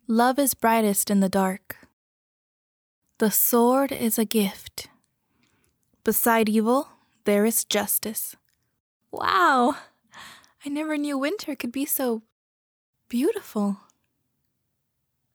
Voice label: Animation Voiceover

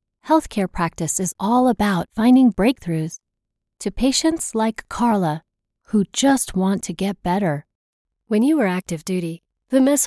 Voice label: Conversational - INTERNET - Relatable - Warm

